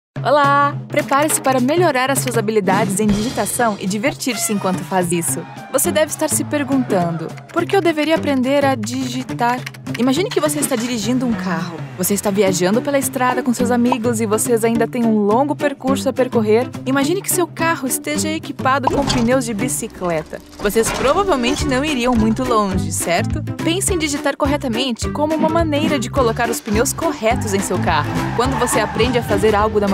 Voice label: elearning education young dynamic funny female brazilian; teachingdotcom